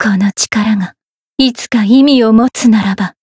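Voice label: lancer variable chant, Japanese; violet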